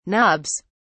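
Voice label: "nobs", British English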